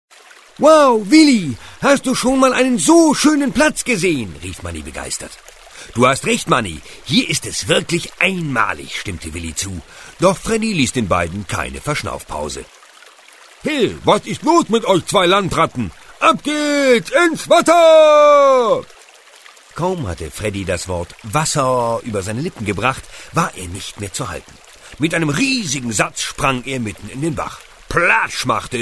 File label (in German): trickstimmen; Muttersprache Deutsch